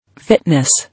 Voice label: "Fitness", English

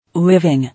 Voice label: "Living", English

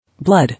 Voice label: "Blood", English

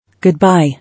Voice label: "Goodbye", English

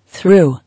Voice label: "Through", English

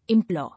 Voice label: "Implore", English

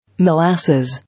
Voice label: "Molasses", English

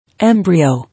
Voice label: "Embryo", English

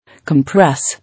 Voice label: "Compress", English

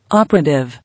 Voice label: "Operative", English

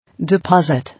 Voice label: "Deposit", English

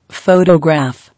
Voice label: "Photograph", English

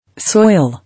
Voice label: "Soil", English

